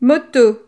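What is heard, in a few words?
"moto", French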